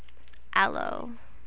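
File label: "aloe", American, female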